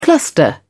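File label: "cluster", English, British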